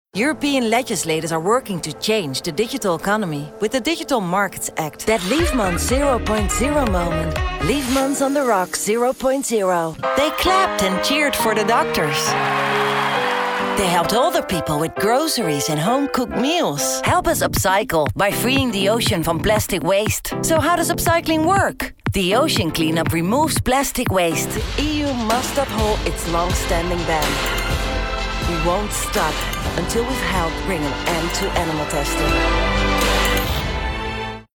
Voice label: Sample English